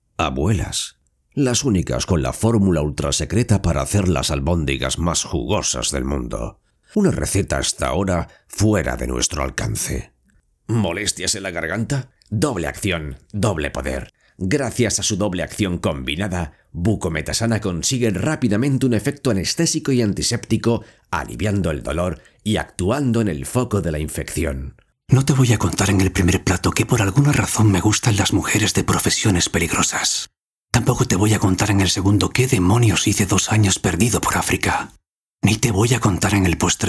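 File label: comercial, Spanish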